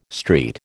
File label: "street", American